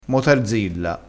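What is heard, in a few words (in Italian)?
"motarzilla", dialettale crespinese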